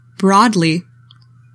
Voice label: "broadly", english; 3000 words

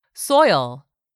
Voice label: "SOIL", english; 3000 words

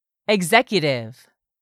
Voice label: "executive", English; 3000 words

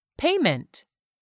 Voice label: "PAYMENT", English; 3000 words

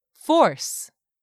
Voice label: "force", English; 3000 words

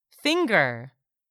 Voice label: "FINGER", English; 3000 words

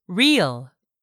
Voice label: "REAL", English; 3000 words

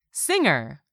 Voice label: "singer", English; 3000 words

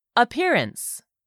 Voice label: "appearance", english; 3000 words

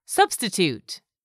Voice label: "substitute", English; 3000 words

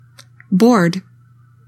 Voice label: "BORED", english; 3000 words

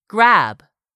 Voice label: "grab", English; 3000 words

